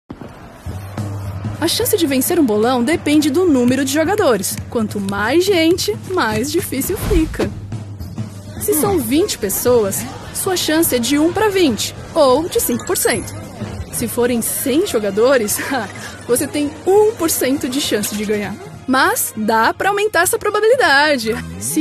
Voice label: portuguese brazilian explainer videos, voice actor